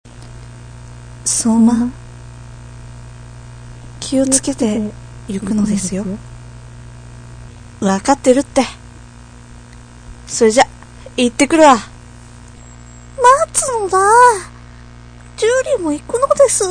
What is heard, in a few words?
narration narrater